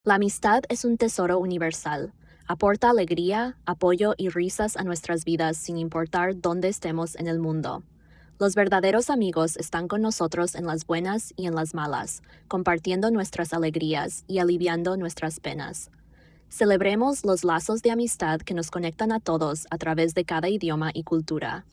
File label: spanish; heygen